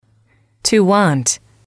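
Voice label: "to want", English, female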